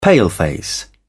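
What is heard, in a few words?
"paleface", British English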